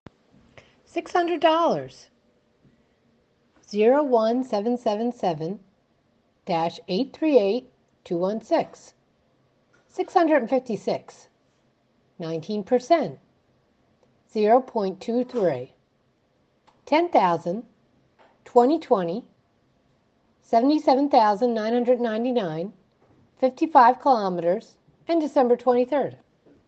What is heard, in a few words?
North American